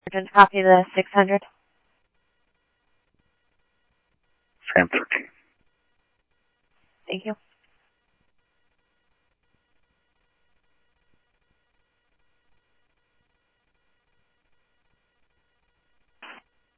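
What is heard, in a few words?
Mesa Police Department, scanner audio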